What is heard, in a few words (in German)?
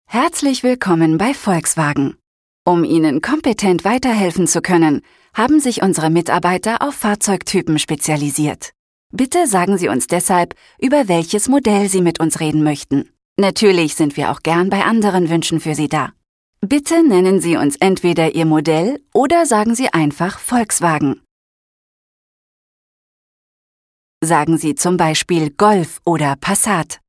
de telefon, deutsch frauen; sprecher